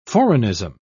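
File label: "foreignism", British English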